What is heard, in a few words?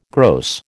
"gross", American